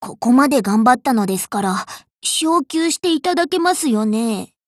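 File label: Japanese, Voice; GF Alarm; Pekora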